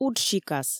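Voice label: "уджсикас" Komi, female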